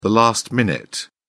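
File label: "last-minute", British English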